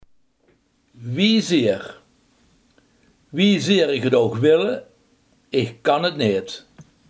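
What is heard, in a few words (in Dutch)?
hoezeer, Limburgse gesproken woordenboeken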